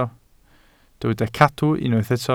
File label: "cadw", Welsh